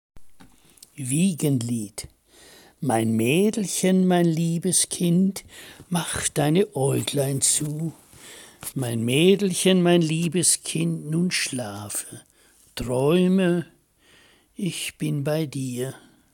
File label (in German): Wiegenlied, Gedichte eigene Lesung